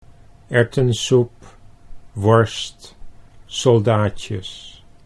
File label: erwtensoep worst, Dutch